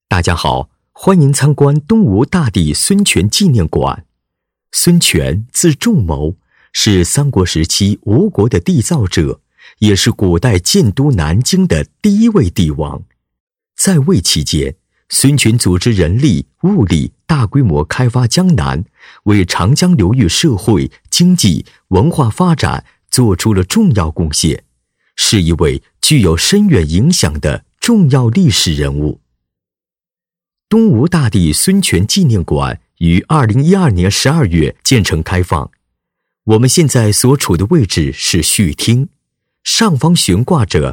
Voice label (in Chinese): 男 旅游解说, 年轻浑厚, 国语男声配音; 东吴大帝孙权纪念馆